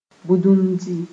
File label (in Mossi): "bũndumdi", moore